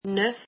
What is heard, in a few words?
french nine